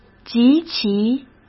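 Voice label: "及其", Mandarin Chinese; chinese-flashcards; hsk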